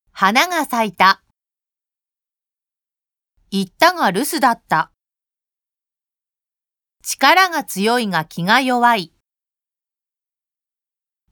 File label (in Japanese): 濁音・鼻濁音, japanese pronunciation